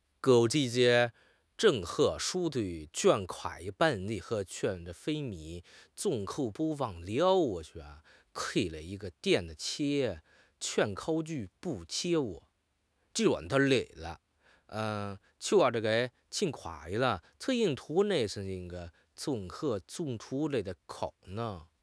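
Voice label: "gu3 li4", Chinese; 汉语口语新时代-初级下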